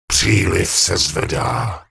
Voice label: Gnome Male err loot too far; wowpreklad; czwow; GnomeMaleErrorMessages